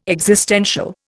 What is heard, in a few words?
"existential", Indian